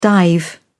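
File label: "dive", British English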